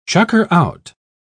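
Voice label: "chucker-out", British English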